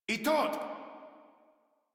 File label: Cover Me, Modular Corpus Male; Warframe